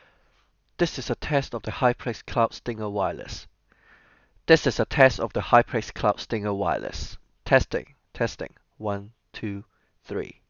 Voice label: Wireless Microphone; HyperX Cloud Stinger